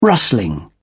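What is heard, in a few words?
"rustling", British English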